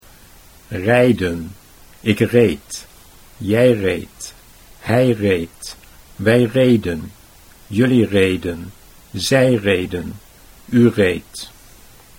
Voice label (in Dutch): "rijden ik reed", Dutch